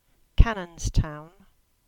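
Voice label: "Cannonstown" English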